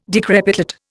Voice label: "decrepitate", Indian